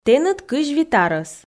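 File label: "тэныд 25 арӧс", Komi, female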